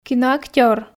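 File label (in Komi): "киноактёр", Komi, female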